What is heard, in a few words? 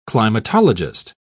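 "climatologist", British English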